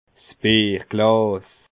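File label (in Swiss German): "bierglas", Bas Rhin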